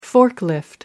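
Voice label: "forklift", British English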